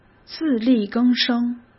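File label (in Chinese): "自力更生", Mandarin Chinese; hsk; chinese-flashcards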